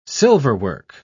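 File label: "silverwork", British English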